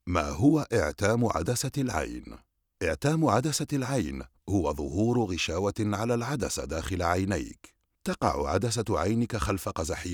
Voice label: arabic narration